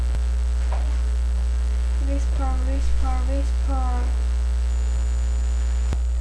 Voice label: whisper

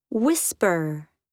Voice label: whisper

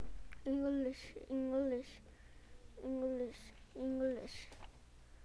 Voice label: English

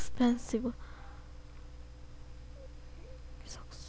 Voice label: whisper

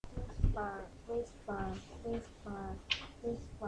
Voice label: whisper

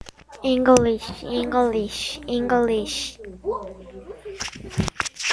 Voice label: English

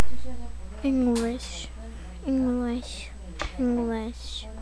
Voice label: English

